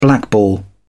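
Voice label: "blackball", British English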